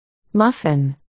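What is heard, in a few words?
"muffin", spoken word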